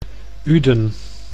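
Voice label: "Uden", Dutch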